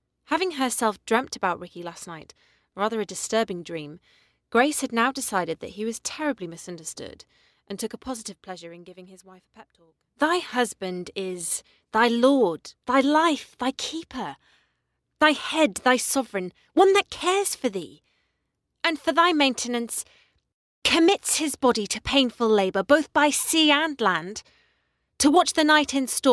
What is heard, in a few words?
Drama